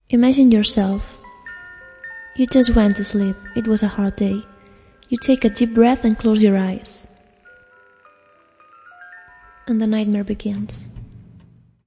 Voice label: imagine yourself nightmare begins, female spoken word; MISC and SPOKEN WORD